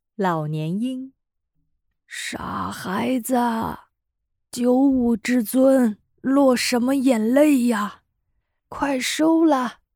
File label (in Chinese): 老年音